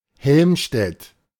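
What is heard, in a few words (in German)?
"Helmstedt", German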